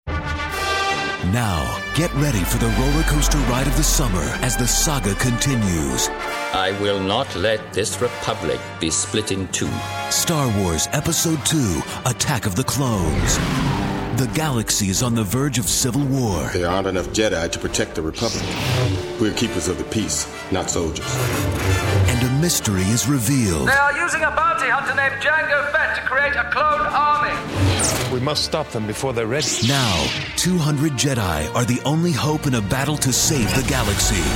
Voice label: radio

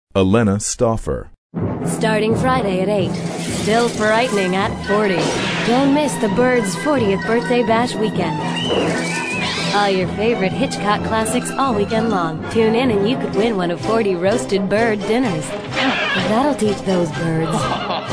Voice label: promo

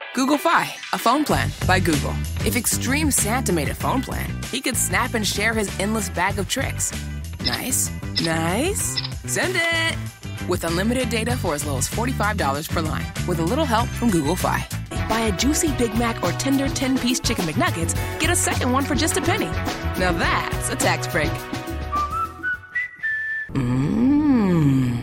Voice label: commercial

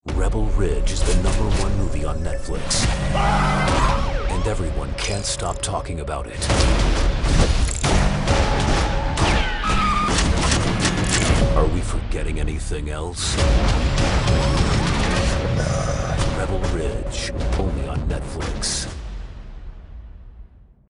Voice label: TV Spots; Rebel Ridge

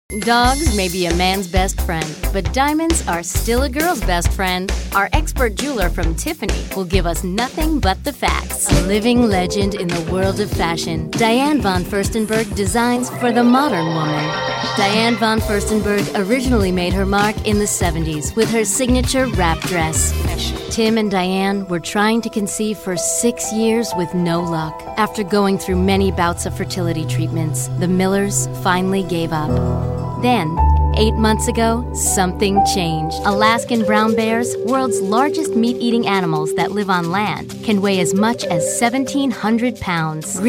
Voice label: narration

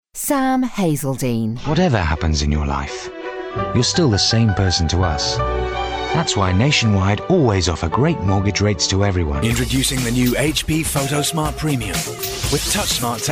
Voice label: commercial

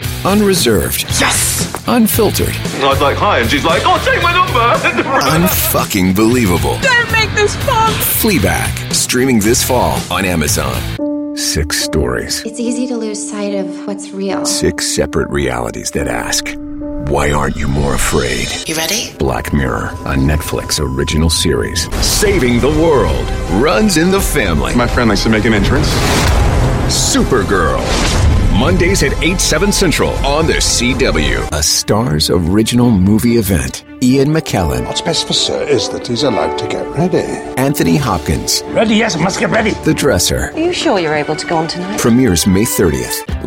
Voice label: promo

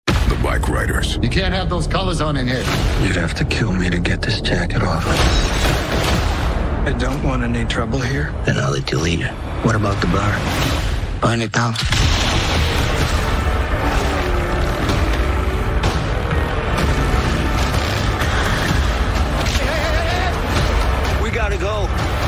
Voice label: TV Spots; The Bikeriders

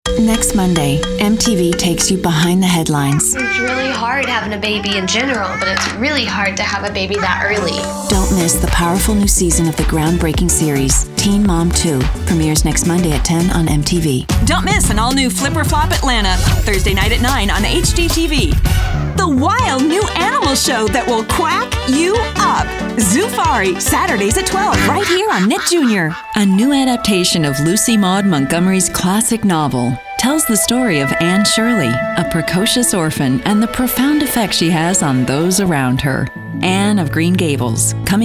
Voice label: Promos

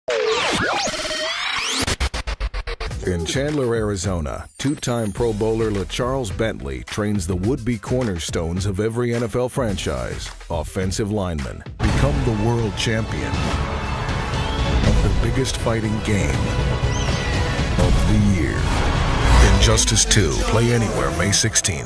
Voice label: promo

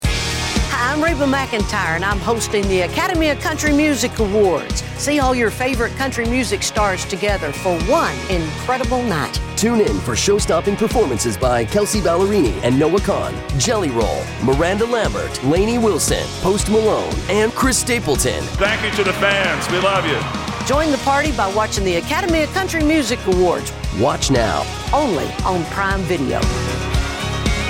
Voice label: radio

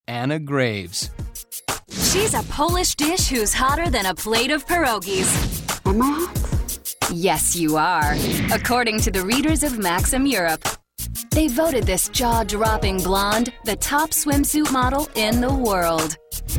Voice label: narration

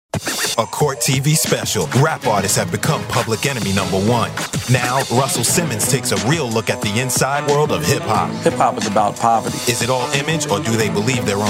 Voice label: promo

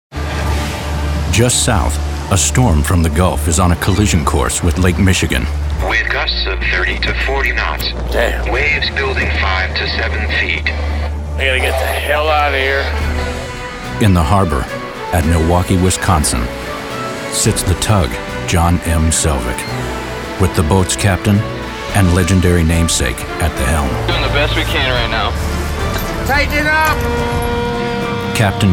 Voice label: Narr Demo

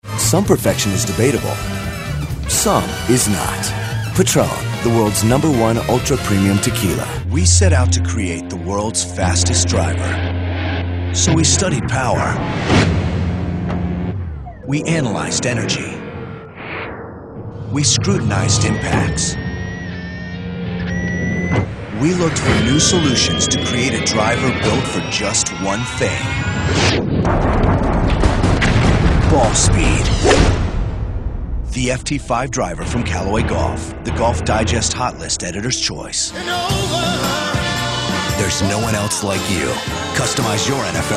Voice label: commercial

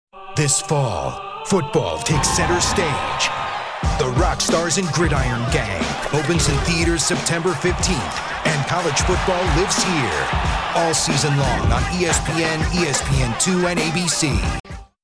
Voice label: TV Spots; Gridiron Gang / ESPN

